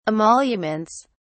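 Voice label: "emoluments", British English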